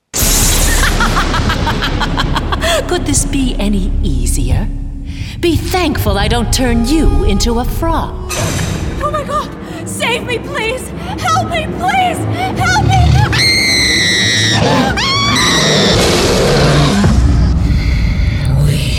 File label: Toons